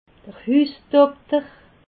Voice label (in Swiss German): "husdokter", alsace